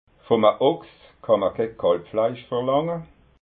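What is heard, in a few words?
"ochs", Alsatian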